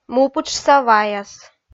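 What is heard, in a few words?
"мупытшса ваяс", Komi, female; kpv audio dict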